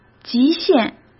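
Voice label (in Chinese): "极限", Mandarin Chinese; chinese flashcards; hsk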